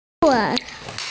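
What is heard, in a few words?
"zuo4wei4", Mandarin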